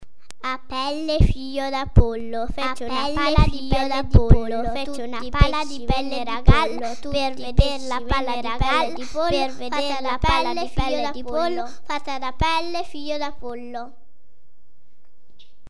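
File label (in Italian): Coro per voce sola (multitrack), Frase modificata; I bambini, con l'aiuto dell'insegnante, registrano e rielaborano la propria voce al comput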